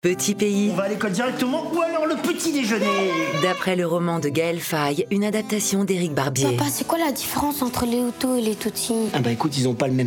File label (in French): spot radio; PETIT PAYS